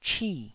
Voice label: "chi4", taiwanese

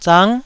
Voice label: "zang9", taiwanese Syllables

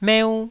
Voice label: "meu1", taiwanese

